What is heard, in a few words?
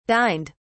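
"dined", British English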